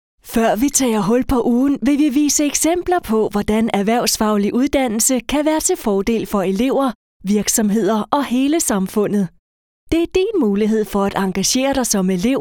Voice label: VO Corporate